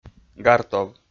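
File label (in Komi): "гартов", Komi, male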